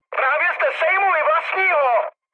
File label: player killed ally, speech cze, mood med; War Thunder